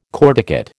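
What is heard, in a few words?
"corticate", American English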